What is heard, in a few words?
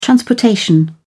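"transportation", British English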